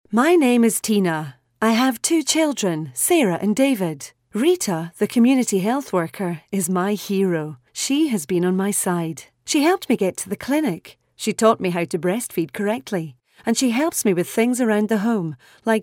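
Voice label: English Scottish, female, voice over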